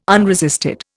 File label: "unresisted", Indian